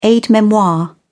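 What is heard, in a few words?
"aide-memoire", British English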